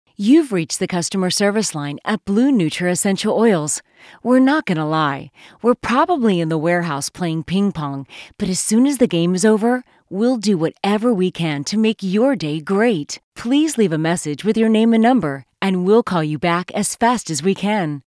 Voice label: Hip Voicemail Prompts